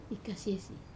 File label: "kasie", to tie, wamesa